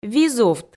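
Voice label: "визувт", Komi, female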